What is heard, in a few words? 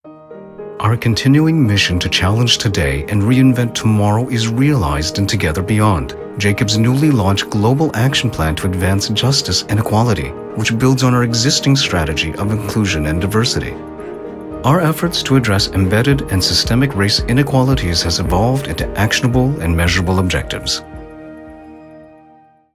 Corporate